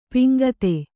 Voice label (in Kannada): "ಪಾಂಕ್ತ", Kannada